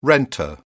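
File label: "renter", English, British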